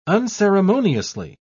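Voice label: "unceremoniously", British English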